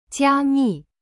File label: "加密", Chinese; jia mi